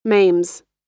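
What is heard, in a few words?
"maims", American English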